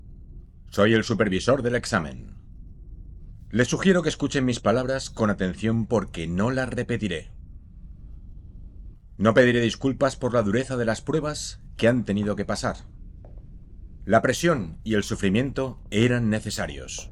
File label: spanish tv shows, spanish voice actors